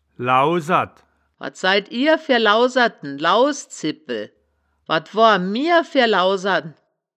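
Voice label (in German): "lauserd", Wittlicher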